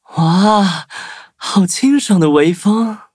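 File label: level up, Traditional Chinese; cookie run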